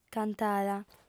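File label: "cantada", Sardinian, female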